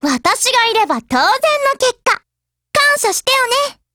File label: WIN, JP; NEGEV